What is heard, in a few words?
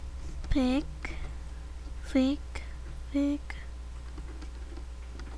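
"pick", spoken word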